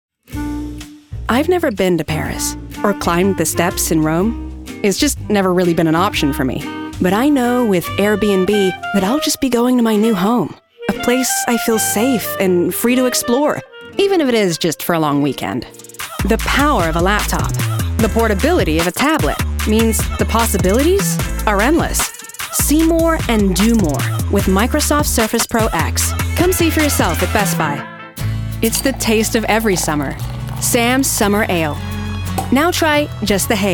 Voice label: USA COMM